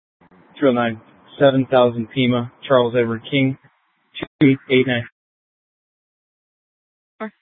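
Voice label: Scottsdale PD North Dispatch, Regional Wireless Cooperative scanner; Regional Wireless Cooperative (RWC); Simulcast H- Scottsdale; Nth Dispatch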